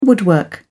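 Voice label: "woodwork", British English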